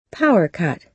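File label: "power cut", American English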